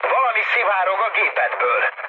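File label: tank damaged, mood med, Hungarian; War Thunder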